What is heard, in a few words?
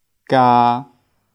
"gaa3", Cantonese